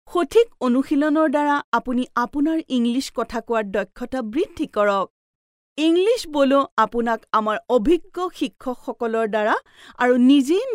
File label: Assamese Female